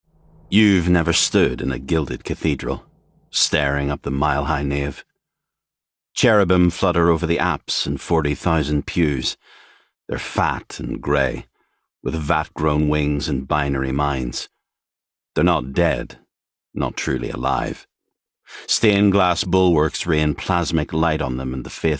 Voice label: voiceover, audio books; New York

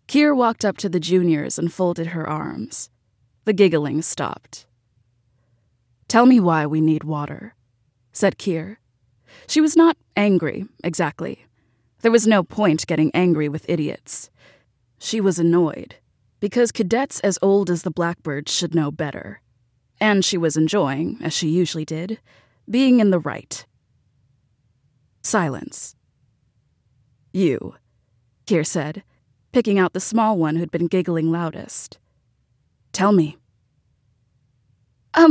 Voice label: voiceover audio books; new york

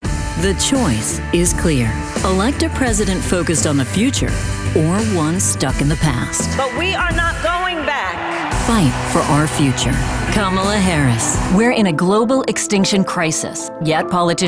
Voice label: voiceover, narration, women; new york

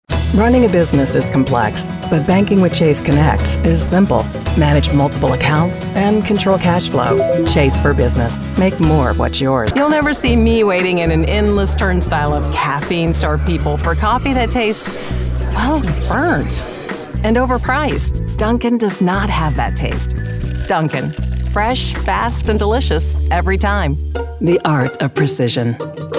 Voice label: Voiceover, Commercial, Women; New York; Commercial Demo

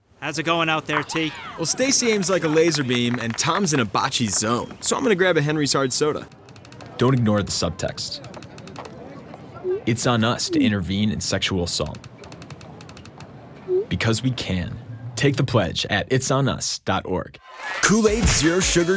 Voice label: Voiceover, Commercial, Men; Chicago

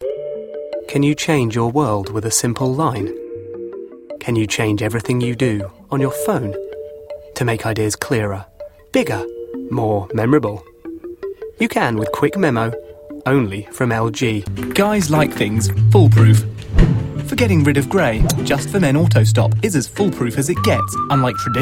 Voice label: Voiceover, Commercial, Men; new york

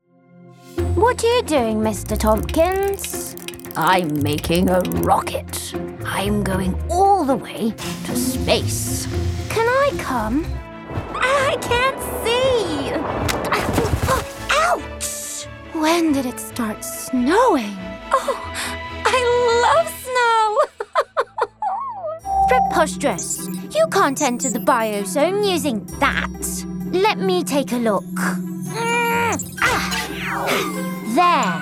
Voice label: Voiceover, Animation; New York

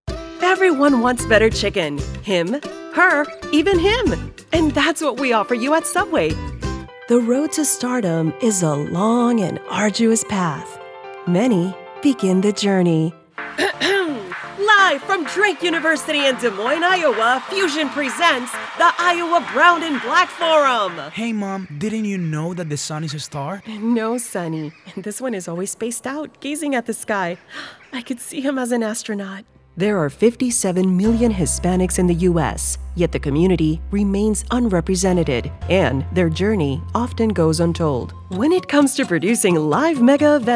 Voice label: voiceover commercial women; chicago

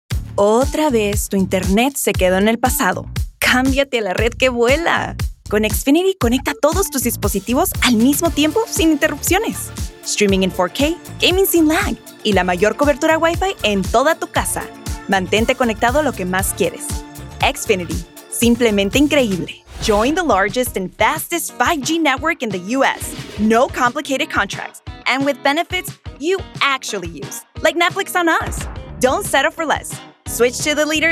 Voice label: voiceover foreign language spanish; chicago

